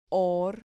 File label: "hor", Spanish syllable